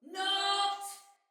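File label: See Player Soldier Female; Barks Battle; Sinecure Soldier Female B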